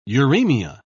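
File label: "uraemia", British English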